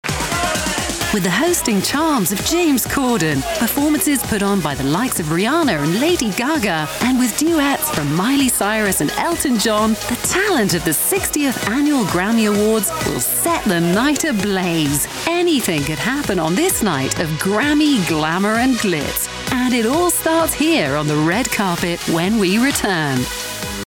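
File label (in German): British English Aufgeregt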